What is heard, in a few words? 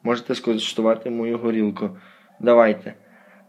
dialogue, Ukrainian